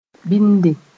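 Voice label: "bɩnde", Mooré; Gurma language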